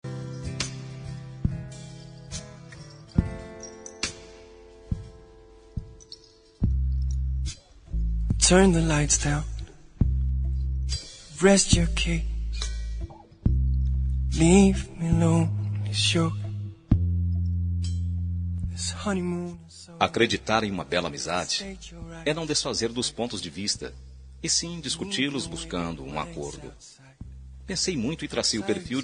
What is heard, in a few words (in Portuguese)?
desculpa masc, Telemensagem Desculpas – Voz Masculina